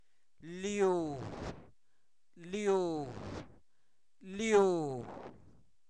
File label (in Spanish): Zapoteco Albarradas, santo domingo albarradas; comestibles; raíz; INALI